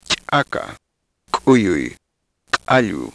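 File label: quechua, ejektiver; [ˈt͡ʃʼaka ˈkʼujui ˈqʼaʎu]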